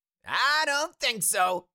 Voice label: Cartoon little monster voice; i dont think so